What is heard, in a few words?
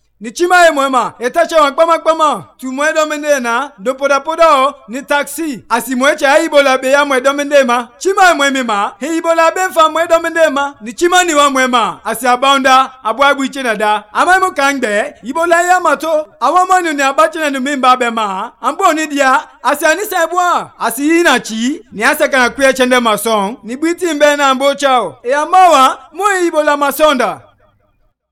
Kono, radio spots; ebola; vhf